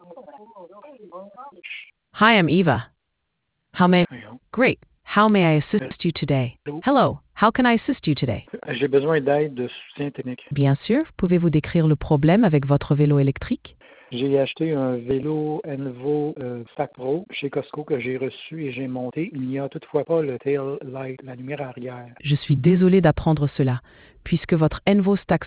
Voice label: conversation